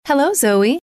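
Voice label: "hello zoe", female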